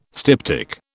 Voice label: "styptic", British